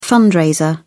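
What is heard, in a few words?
"fundraiser", British English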